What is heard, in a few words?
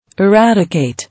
"Eradicate", English